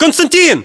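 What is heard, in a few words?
"konstantin", battlechatter, Russian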